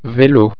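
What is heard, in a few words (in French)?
"velo", French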